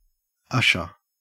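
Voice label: "usher" Australian English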